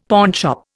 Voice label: "pawnshop", Indian; Dictionary